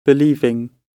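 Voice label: "believing", British English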